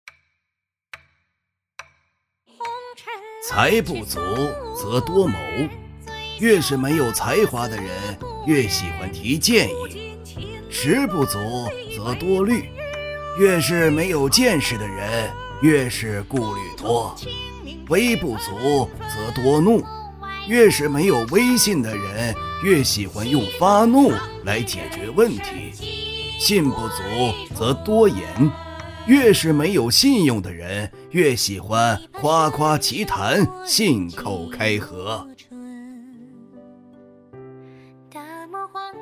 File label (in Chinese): 老人自述类短视频